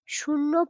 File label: Female